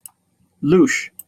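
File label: "louche", British English; pronunciations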